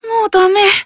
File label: Emo Damn